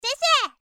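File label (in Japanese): "syesye", Japanese girl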